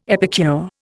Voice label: "epicure", Indian

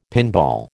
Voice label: "pinball", American

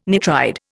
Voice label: "nitride", Indian

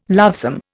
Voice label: "lovesome", Indian

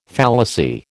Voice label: "fallacy", American

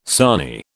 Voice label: "sarnie", British

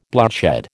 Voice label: "bloodshed", American

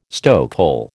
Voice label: "stokehole", American

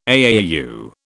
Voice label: "AAU", British

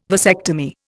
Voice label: "vasectomy", Indian

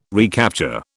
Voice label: "recapture", British

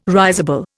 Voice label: "risible", Indian

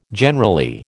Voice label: "generally", American

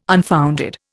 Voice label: "unfounded", Indian

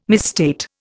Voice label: "misstate", Indian

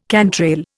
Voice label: "cantrail", Indian

